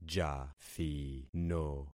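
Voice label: "jathino", concatenated syllables; Affixing experiment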